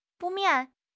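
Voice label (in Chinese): "不免", Chinese; HSK6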